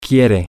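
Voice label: she wants, Spanish, male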